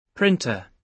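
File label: "printer", British English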